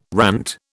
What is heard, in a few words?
"rant", British